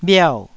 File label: "bhiau4", taiwanese